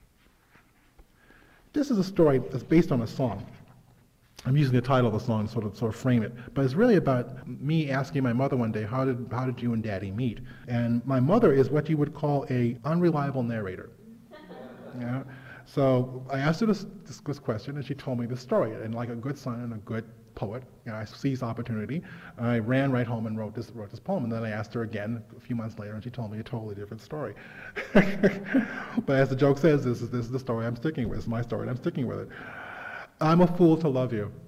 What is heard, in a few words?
reading